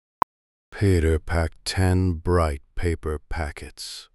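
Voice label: speaker male slow low paper packets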